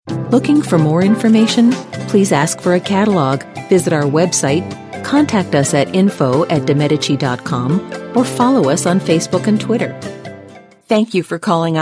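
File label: us telephony, American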